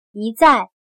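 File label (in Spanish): "一再", chino mandarin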